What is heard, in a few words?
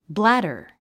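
"bladder", English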